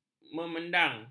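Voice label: "memedang", Malay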